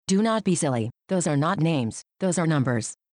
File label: computer, "don't be silly"; time-lab